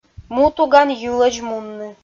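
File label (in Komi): "му туган йылысь мунны", Komi, female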